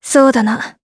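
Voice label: voice Aff2, voices heroes, Japanese; Tanya; kingsraid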